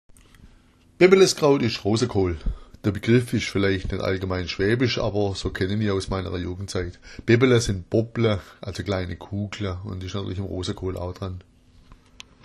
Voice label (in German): "bebbeleskraut", schwaebisch